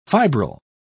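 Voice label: "fibril", English, British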